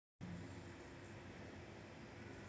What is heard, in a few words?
voice message